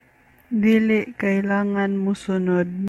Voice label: Cebuano